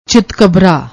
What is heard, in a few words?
"chitkabra", Punjabi; ਚਿਤਕਬਰਾ